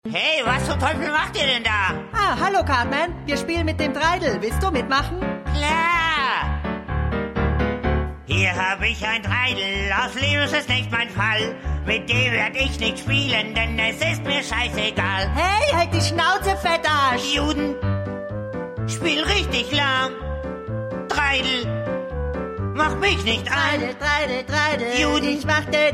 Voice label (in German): Sprache Gesang Pitch; Cartman; South Park